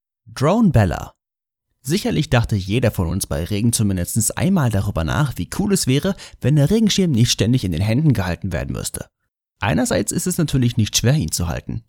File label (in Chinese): 德语男 干音 叙述